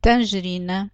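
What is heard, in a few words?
"tangerina", European Portuguese; fruta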